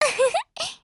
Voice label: MOOD, JP

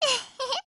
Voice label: MOOD JP

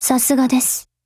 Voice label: APPRECIATE JP

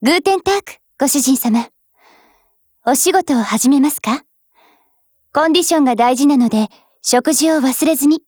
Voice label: HELLO JP

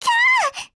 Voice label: MOOD JP

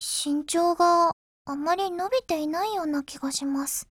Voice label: FEED JP; AKAlfa

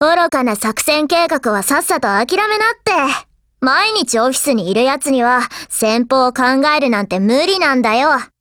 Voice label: DIALOGUE JP

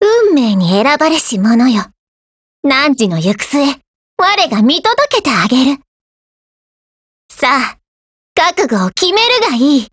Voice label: GAIN JP

